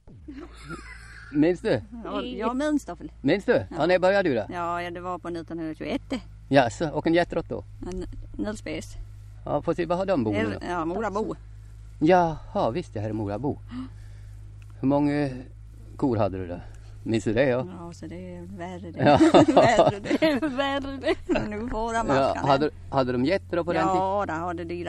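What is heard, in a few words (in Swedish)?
Ovanåker Hälsingland, dialekter; Folkminnen; Svenska sagor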